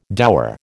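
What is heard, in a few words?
"dower", American English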